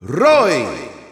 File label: Spanish Announcer; Roy Koopa; SSBU